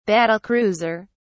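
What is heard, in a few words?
"battle-cruiser", British English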